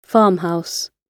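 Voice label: "farmhouse" British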